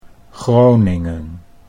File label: "Groningen", Dutch